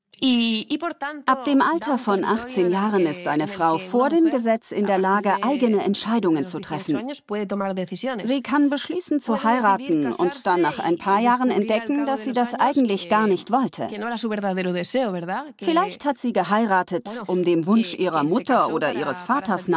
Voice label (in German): voiceover doku; arte offene ideen